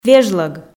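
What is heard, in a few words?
"вежлӧг", Komi, female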